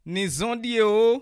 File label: new year, bassa; Nì Zɔ̃ Ɖìèo